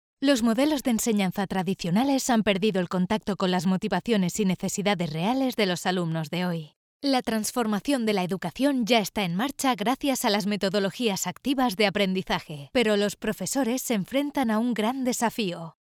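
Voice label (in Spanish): e-learning, Spain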